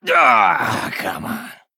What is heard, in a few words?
Male Night Elf Death